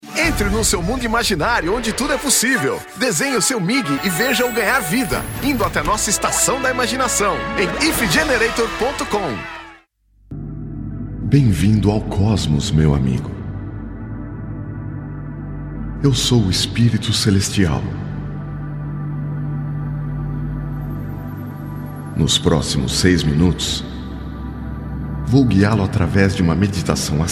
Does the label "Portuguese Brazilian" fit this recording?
Yes